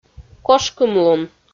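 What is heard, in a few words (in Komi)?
"кошкымлун", Komi-Zyrian, female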